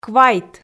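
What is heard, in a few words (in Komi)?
"квайт", Komi, female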